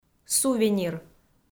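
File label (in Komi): "сувенир", Komi, female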